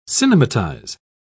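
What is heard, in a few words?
"cinematize", British English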